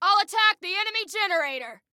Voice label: Self Attack Generator, Female